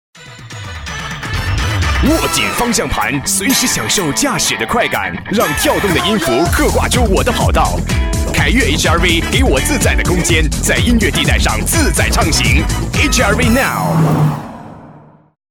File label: voices CHINESE male adult MANDARIN; KER-SOUND